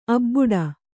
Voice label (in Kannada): "ಅಬಾಡೆ", Kannada